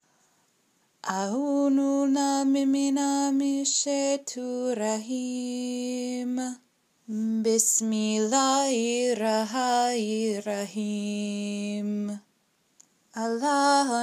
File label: Recitation Light Verse